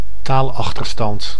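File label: "taalachterstand", Dutch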